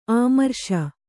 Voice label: "ಅಮರೇಶ", Kannada

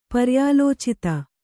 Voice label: "ಪರ್ಯಾಲೋಚಿತ", Kannada; paryālōcita; cogitated.

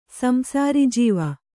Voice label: "ಸಂಸಾರಿಜೀವ", Kannada

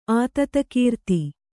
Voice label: "ಆತತಕೀರ್ತಿ", Kannada

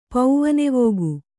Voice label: "ಪವನವೇಗ", Kannada

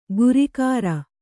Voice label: "ಗುರಿಗಾರ", Kannada

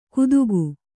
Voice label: "ಕುತುಕು", Kannada

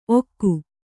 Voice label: "ಔಘ", kannada

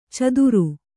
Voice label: "ಚದರ", Kannada

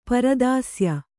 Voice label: "ಪರದಾಸ್ಯ", Kannada

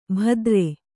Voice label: "ಭದ್ರೆ", Kannada

